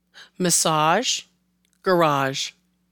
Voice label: Massage Garage, american english